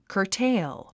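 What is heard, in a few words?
"curtail", English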